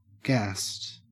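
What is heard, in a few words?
"gassed", Australian English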